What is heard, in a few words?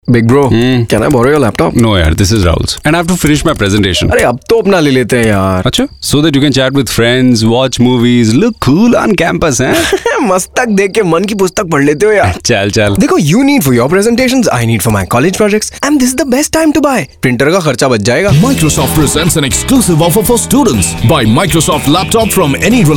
male voice